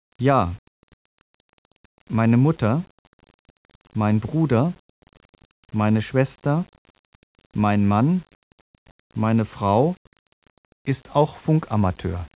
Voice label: SPEECH GERMAN